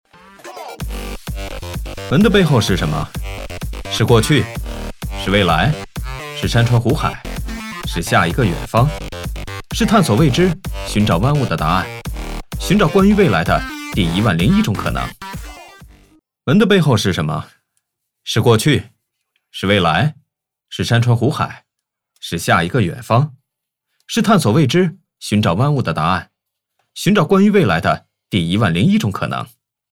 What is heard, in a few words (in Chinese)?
男 TVC广告